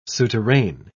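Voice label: "souterrain", English, British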